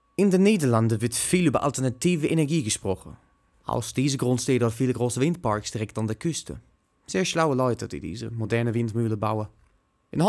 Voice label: german germany male

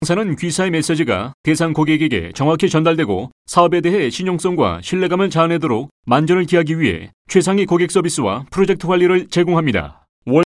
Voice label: korean male

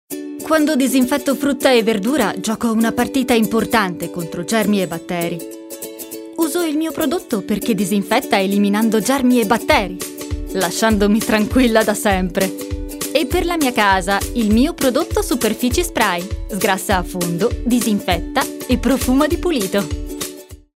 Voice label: italian switzerland female

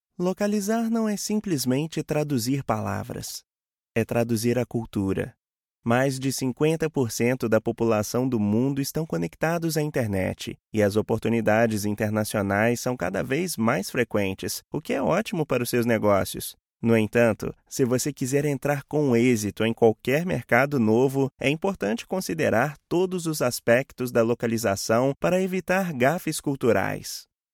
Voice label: portuguese brazil male

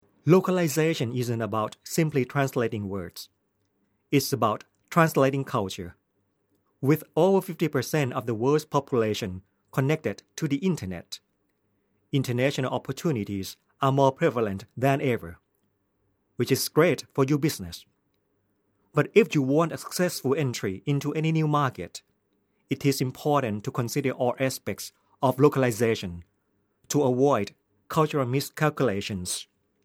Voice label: accented english male

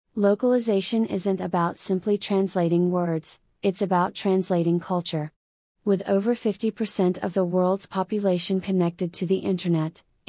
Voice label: english usa female

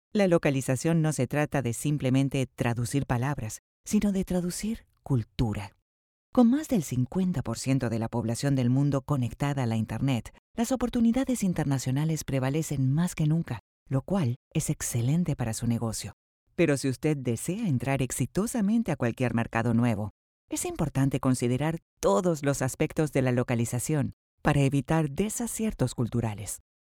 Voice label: spanish neutral female

